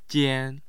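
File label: "jian", Mandarin Chinese, tone 1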